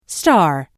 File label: "Star", Telephone prompt; Pre-recorded telephone on-hold message.; Recorded Voice Sound Effects